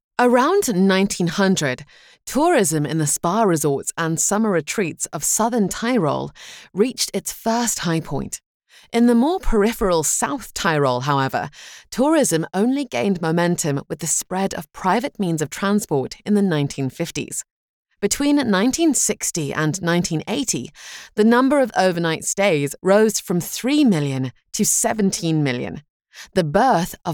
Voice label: english british e-learning

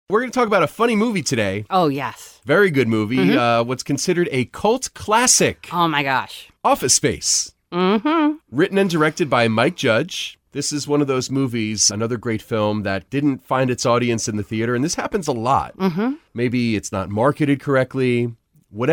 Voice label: english american podcasts

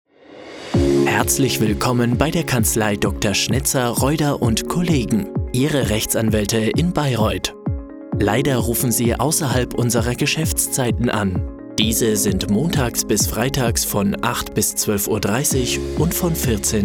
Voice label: german ivr

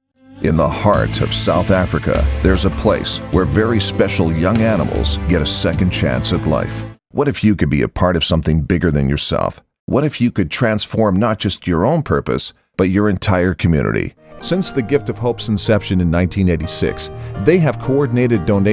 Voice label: english canadian others; voice actors

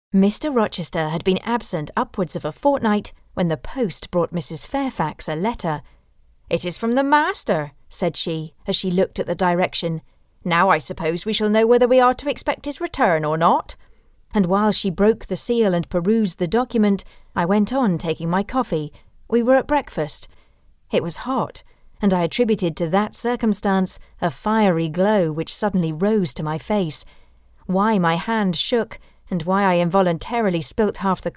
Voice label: english british audiobooks, british voice actors

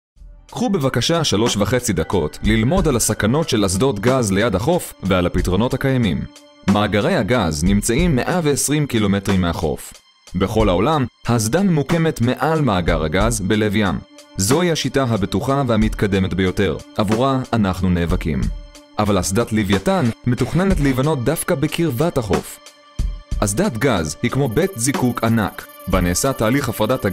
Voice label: hebrew narration

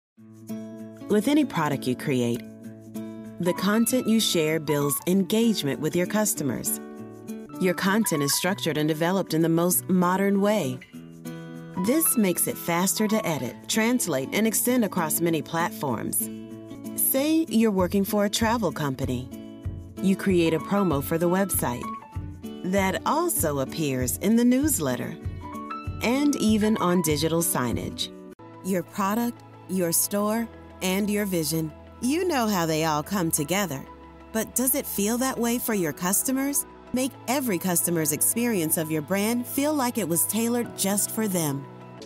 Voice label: english american others

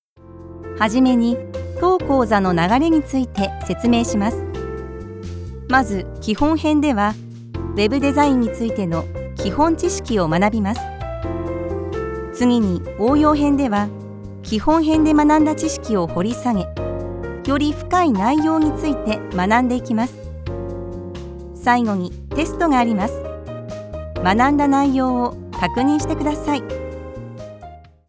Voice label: japanese e-learning

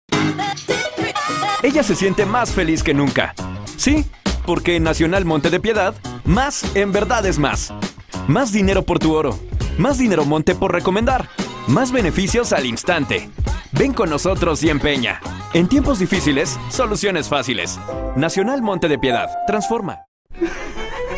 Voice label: spanish latin american commercial; Spanish (Mexican); Mexico

